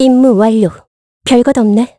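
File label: Vox Victory, Korean; Ripine; kingsraid; voices heroes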